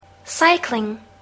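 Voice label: "cycling", English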